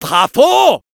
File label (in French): Medic cheers, fr